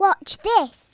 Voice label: watch this, Speech Kidz; Worms Armageddon

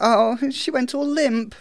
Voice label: "bye bye", Speech; Worms Armageddon

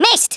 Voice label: "MISSED", Australian; Worms Armageddon

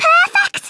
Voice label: "PERFECT", Scouser

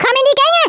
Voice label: COME ON THEN, Speech, German; Worms Armageddon

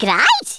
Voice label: "excellent", Brummie; Worms Armageddon

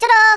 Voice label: "byebye", Brummie; Worms Armageddon

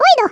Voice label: "oh dear", Swedish

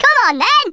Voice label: "justyouwait", Brummie; Worms Armageddon

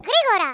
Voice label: "Hurry", Greek; Worms Armageddon

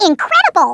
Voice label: "amazing", Brooklyn; Worms Armageddon

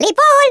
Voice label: "bye bye", German; Worms Armageddon

